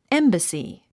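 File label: "embassy", 英単語 発音; émbəsi エンバスィ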